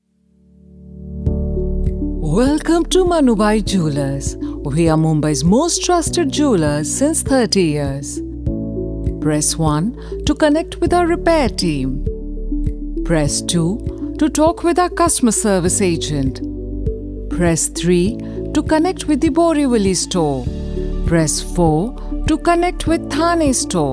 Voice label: Jewellery Eng, female English voice artist from Gurgaon good accent